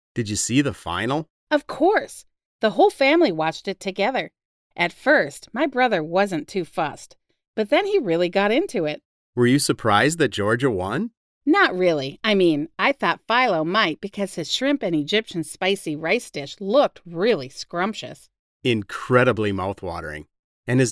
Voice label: conversation; Unit 4